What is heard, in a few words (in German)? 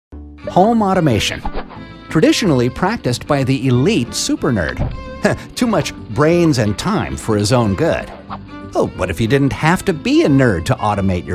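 Erklarvideo Jugendfrei, English US; NATIVE PROFISPRECHER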